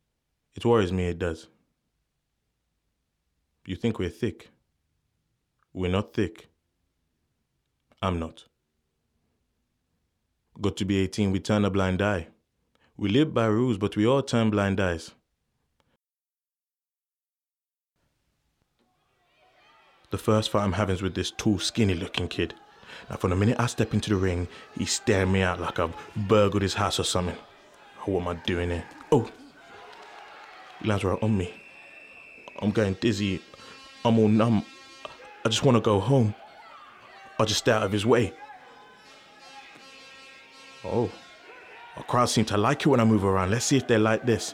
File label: drama